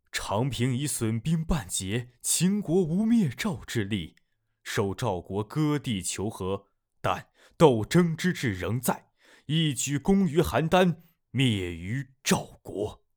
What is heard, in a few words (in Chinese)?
旁白